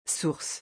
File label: "source", French